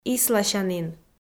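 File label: "исласянін", Komi, female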